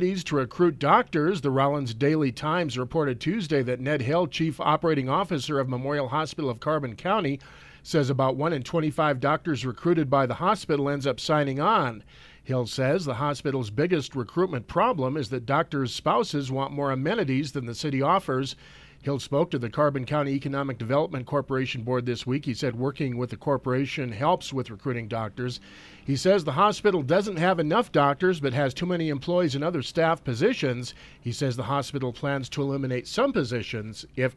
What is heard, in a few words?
WYOMING RADIO NEWS late afternoon